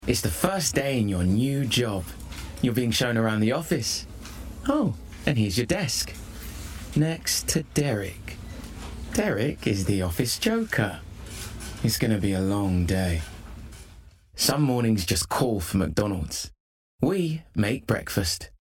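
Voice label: Commercial, Male, Assured, Blokey, Bright, Conversational, Friendly, Warm; McDonalds; United Voices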